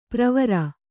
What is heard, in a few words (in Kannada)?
"ಪ್ರವರ", Kannada